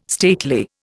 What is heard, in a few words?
"stately", Indian